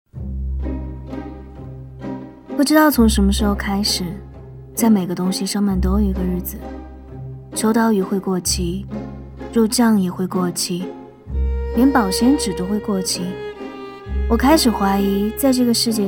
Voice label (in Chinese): 台湾腔; 王家卫风